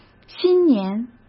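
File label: "新年", Mandarin Chinese; chinese flashcards; hsk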